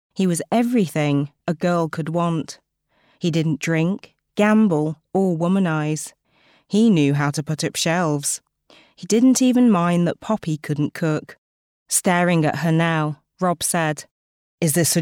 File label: Audio Books Narrators